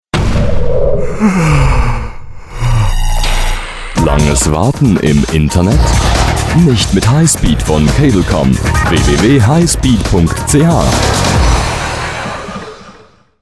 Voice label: Spot; Cablecom